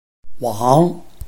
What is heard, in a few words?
"wang3", Chinese